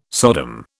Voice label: "Sodom", British